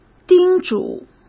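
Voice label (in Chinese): "叮嘱", Mandarin Chinese; hsk; chinese-flashcards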